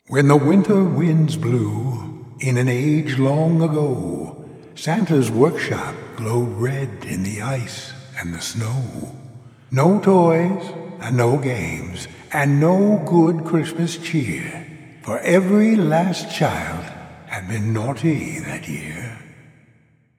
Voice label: mech monster narration; mechanical monsters mech the halls